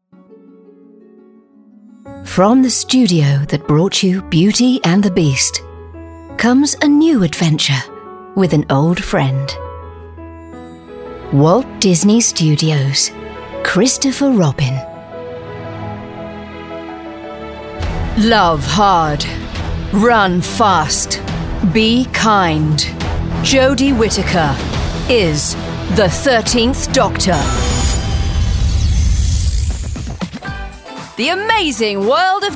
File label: Promos